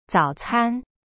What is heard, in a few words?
"早餐" zao can, chinese